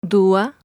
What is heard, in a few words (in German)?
zaehlen Indonesisch